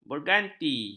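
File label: "berganti", Malay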